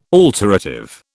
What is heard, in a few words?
"alterative", British